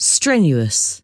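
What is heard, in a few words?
"strenuous", English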